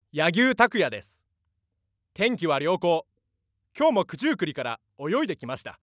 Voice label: freetalk, male voice